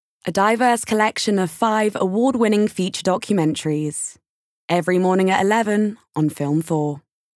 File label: Promo, Smooth, Confident, RP ('Received Pronunciation'); Film 4